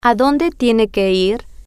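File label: Adonde Tiene Que Ir, Spanish, female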